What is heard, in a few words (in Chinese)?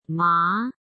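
"麻", Chinese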